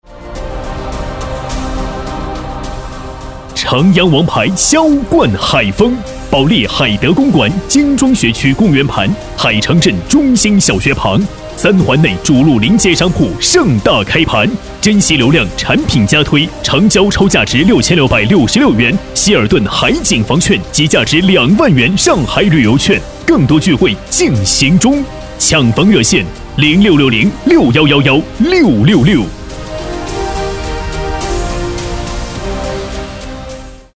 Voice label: B男 促销 大气; 城央王牌

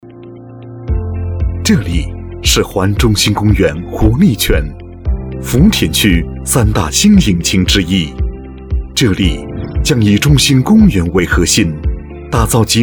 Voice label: B男 宣传片 地产; 深圳地产

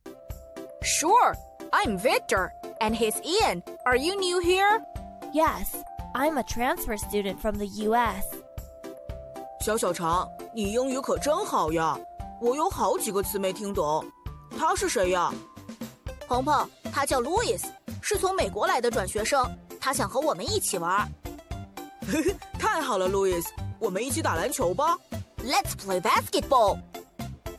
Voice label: 女 中英语 童声

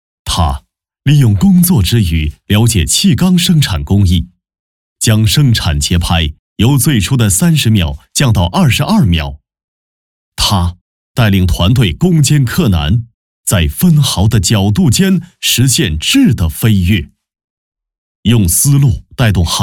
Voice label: 男 颁奖

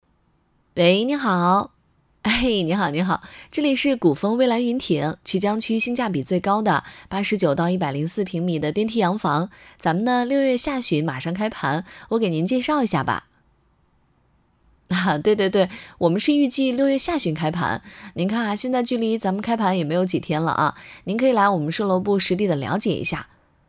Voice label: 女 电销外呼售楼部邀约话术

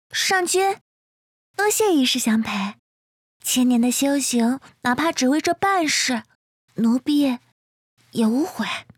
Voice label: 女 角色 少女

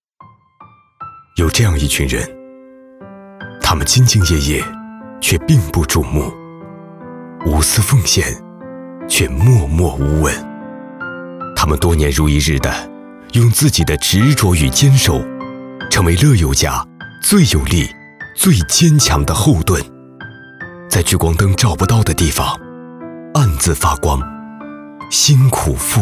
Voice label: 走心旁白, 男; 乐友家

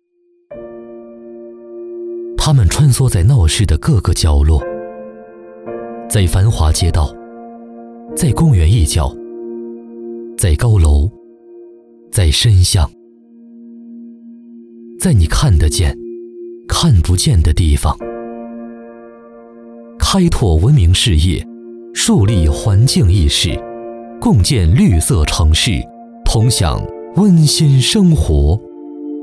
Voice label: A男 广告 温情走心 公益广告; 城投

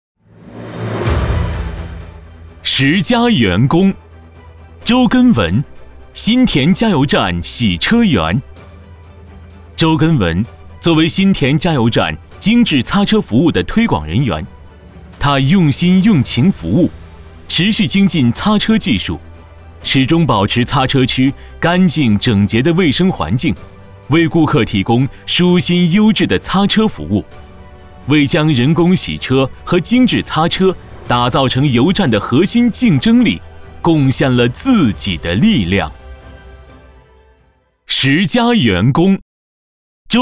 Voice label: C男 颁奖词

